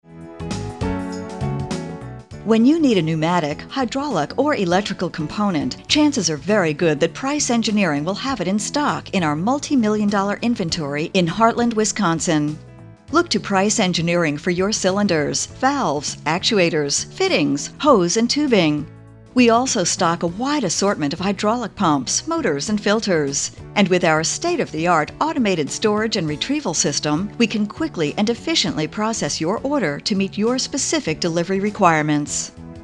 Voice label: on hold message; industry; Price Engineering